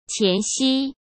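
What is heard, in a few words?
"前夕", Mandarin Chinese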